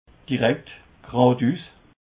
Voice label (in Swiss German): "direkt", Alsace